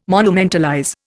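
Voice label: "monumentalize", Indian English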